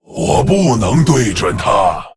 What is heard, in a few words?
Male Lich ERROR TARGET, zh